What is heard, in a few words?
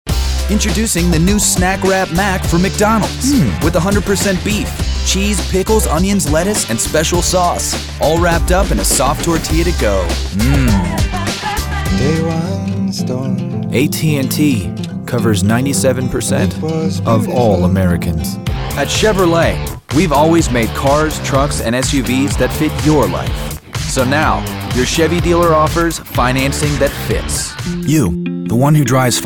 commercial